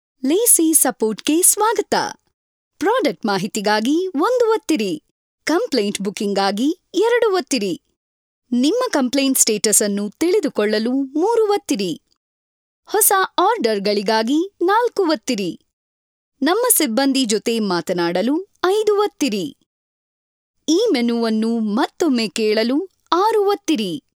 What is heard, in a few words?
IVR Kannada